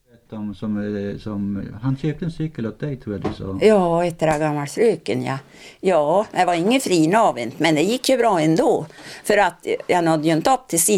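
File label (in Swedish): Hille Gästrikland, Svenska sagor, dialekter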